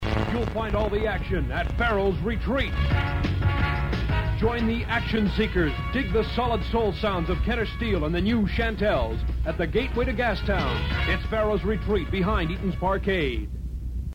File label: Radio Commercial; Pharaohs Retreat Vancouver 1967